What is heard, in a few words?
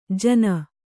"ಜಾನು", Kannada